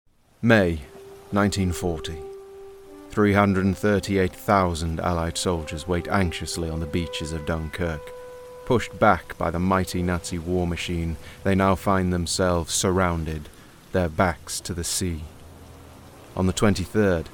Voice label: voiceover narration showreel

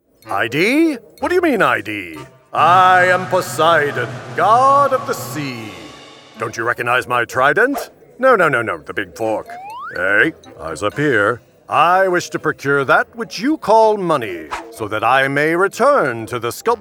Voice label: animation showreel, voiceover

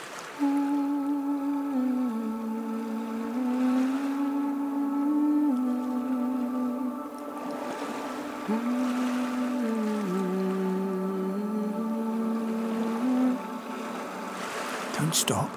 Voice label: radio voice reel

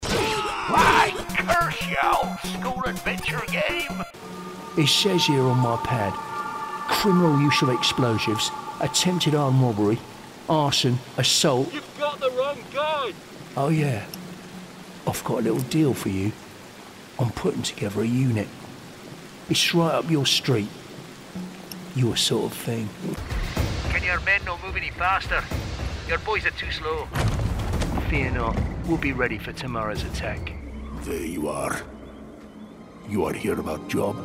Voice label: gaming reel, voiceover; Character

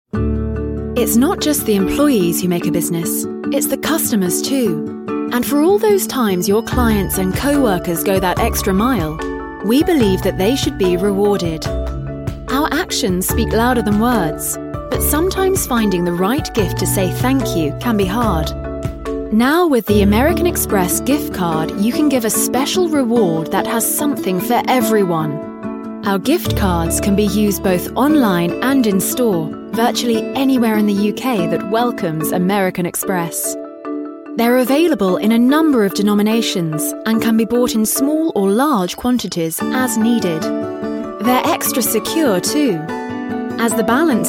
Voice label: corporate, Voiceover

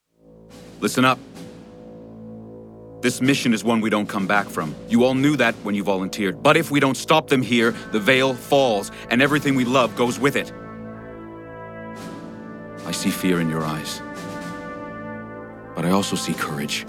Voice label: gaming, voiceover

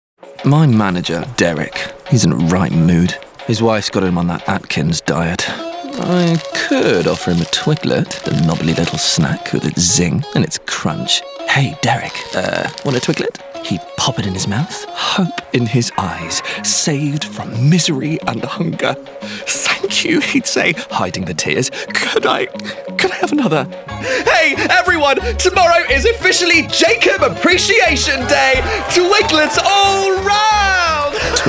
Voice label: voiceover commercial

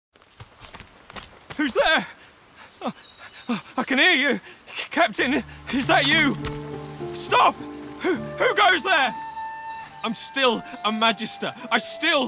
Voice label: videogames, voiceover

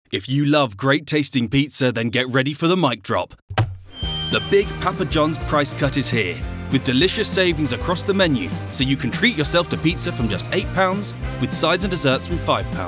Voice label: charity and commercial, Voiceover

